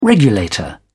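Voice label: "regulator", British English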